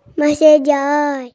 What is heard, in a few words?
Message Ha Aay Kashmiri Ringtone